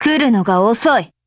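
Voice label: Japanese, Voice; GF Alarm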